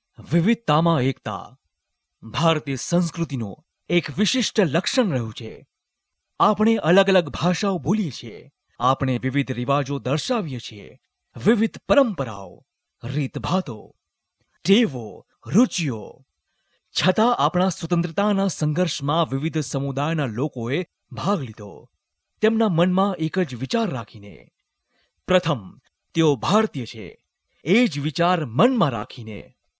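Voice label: Gujarati, male, voice over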